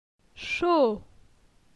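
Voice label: "Cho", French; phonetique; la syllabe o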